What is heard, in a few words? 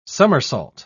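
"summersault", British English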